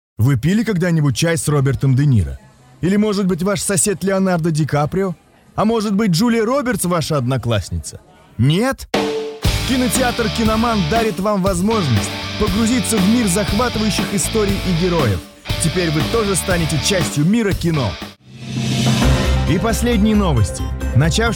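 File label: Russian; GoLocalise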